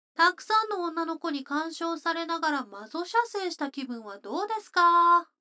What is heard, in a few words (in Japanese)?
女の子 台詞, ASMR